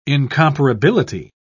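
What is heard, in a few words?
"incomparability", British English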